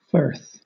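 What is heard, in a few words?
"firth", British English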